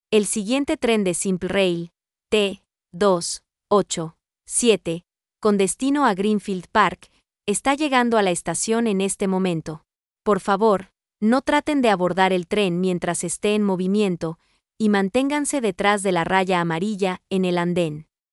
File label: arriving Train, voice announcement; ground transportation